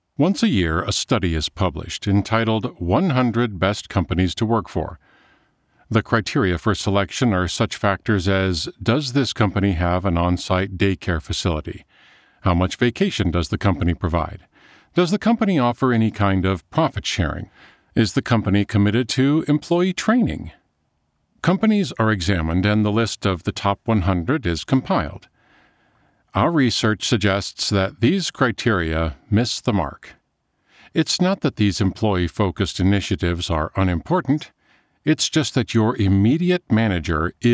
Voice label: Business, audiobook